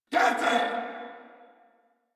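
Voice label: Throw Grenade Corpus Venus Male, en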